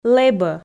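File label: "лэбӧ", Komi, female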